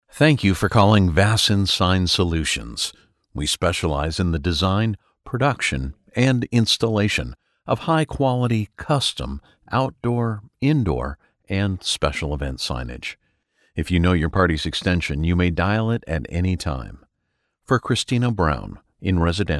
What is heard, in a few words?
english american ivr